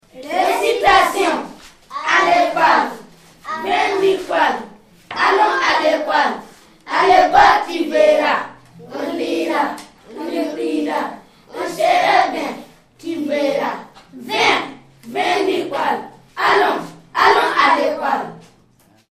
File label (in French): recitation a l'ecole